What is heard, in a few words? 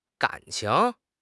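"gan3 qing2", Mandarin Chinese; HSK4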